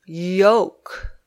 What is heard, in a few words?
"yolk", English